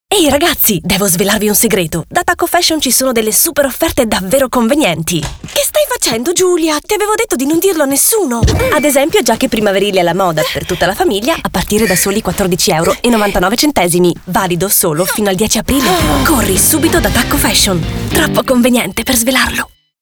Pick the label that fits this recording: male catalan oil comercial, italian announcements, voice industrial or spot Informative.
italian announcements